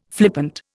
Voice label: "flippant", Indian

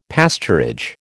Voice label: "pasturage", American

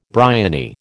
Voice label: "bryony", American

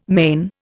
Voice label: "men", Indian

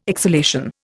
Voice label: "exhalation", Indian

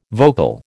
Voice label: "vocal", American

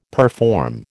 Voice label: "perform", American

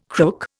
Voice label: "crook", Indian

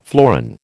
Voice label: "florin", American

